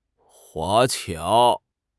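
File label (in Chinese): "华侨", Chinese; HSK6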